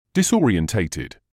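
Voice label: "disorientated", British English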